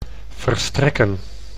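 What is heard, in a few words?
"verstrekken", Dutch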